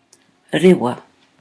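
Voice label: "rewa", Maori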